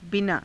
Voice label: "binaa", Hindi

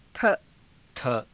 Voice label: "tha", Hindi

